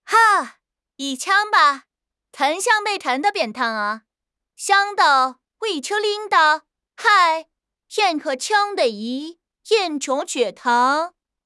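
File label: "kou3", Mandarin Chinese; HSK3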